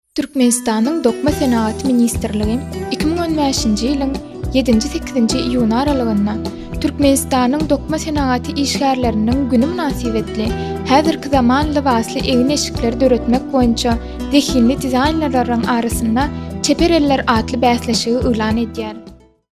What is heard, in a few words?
Turkmen Female